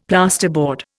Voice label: "plasterboard", Indian; Dictionary